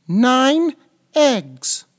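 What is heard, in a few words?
English 9 EGGS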